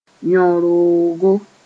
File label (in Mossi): "yõ-roogo", moore